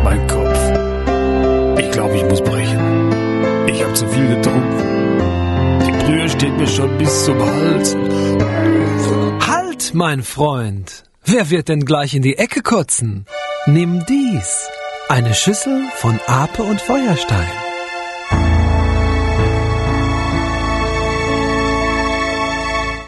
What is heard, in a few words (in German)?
werbung